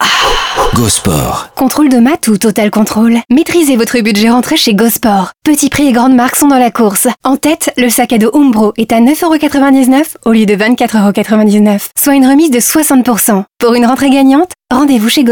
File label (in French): radio; Go Sport